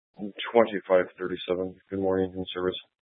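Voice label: Sheriff Dispatch, Scanner audio recording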